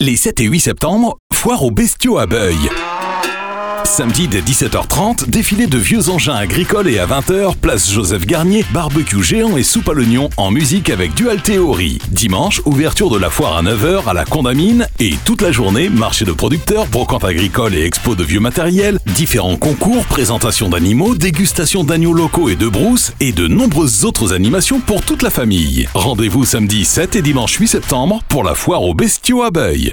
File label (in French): foire aux bestiaux spot; foire aux bestiaux bruil